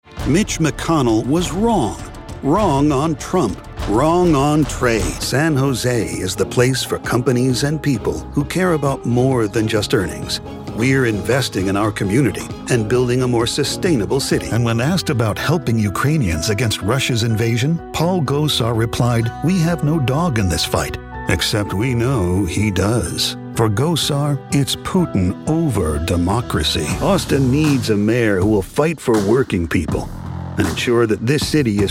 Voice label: Political, voiceover

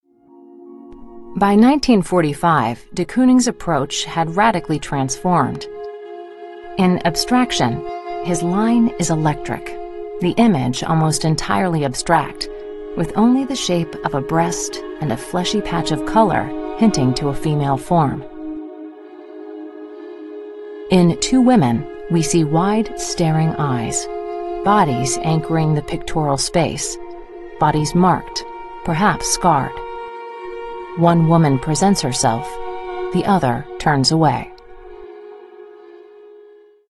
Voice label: Documentary

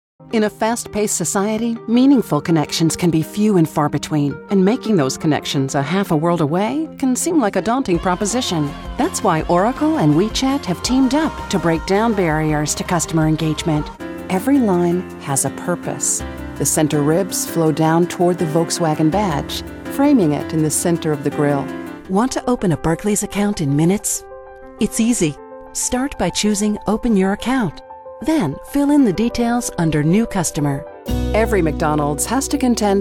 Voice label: Corporate copy

